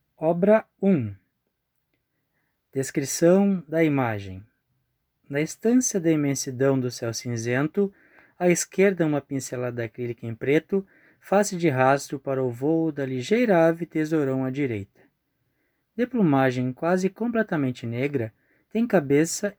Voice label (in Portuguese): audiodescricao; Galeria virtual